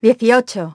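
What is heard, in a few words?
"eighteen", English; iOS 4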